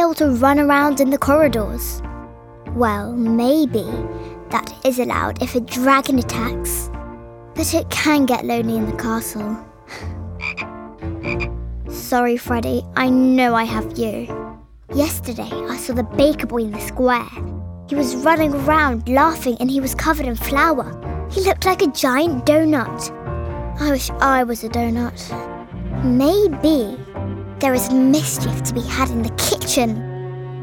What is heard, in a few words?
Princess Animation